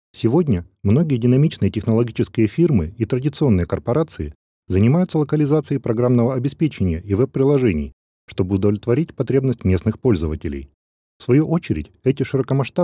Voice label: Localization Narrative